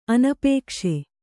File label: "ಅನಪೇಕ್ಷೆ", Kannada